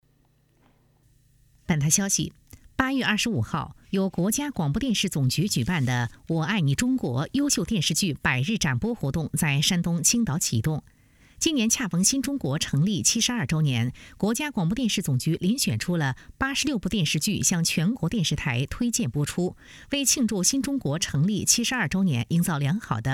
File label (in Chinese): 新闻